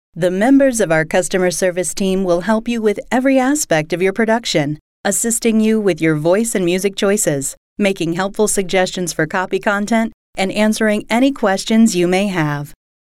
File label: Female